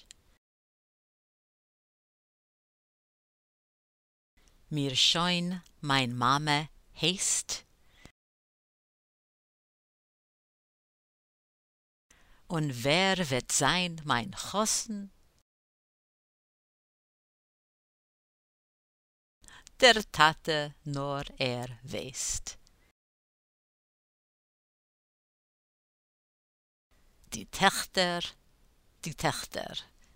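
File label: soprano pronunciation drill, chorus; Listen and repeat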